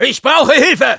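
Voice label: help me, German; "Ich brauche Hilfe!"; Soldier; Soldier voice commands/de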